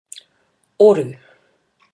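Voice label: "oru", Māori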